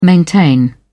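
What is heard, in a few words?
"maintain", British English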